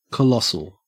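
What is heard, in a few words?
"colossal", Australian English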